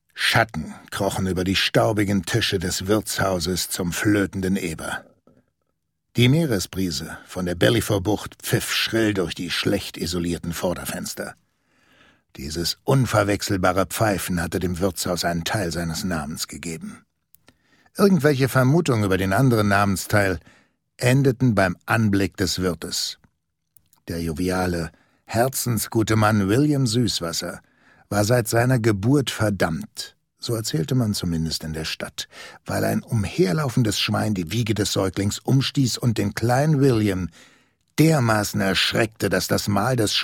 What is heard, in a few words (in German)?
erzaehlung